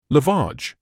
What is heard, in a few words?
"lavage", English